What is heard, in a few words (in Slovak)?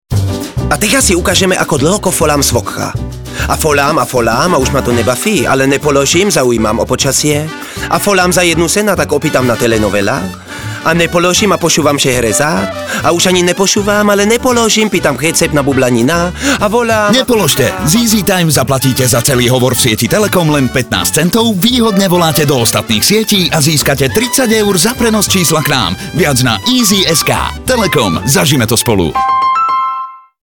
radio; telekom nepolozim